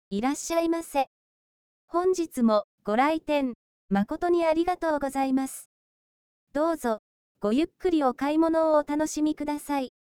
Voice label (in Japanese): 波形接続型音声合成方式による女性の声, 店員イメージ; いらっしゃいませ。本日もご来店、誠にありがとうございます。; どうぞ、ごゆっくりお買い物をお楽しみ下さい。; 近年の音声合成ソフト